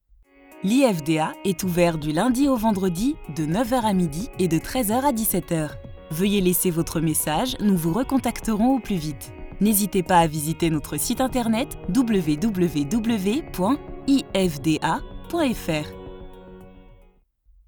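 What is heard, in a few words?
french interactive voice response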